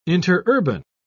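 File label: "interurban", British English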